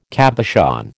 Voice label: "cabochon", American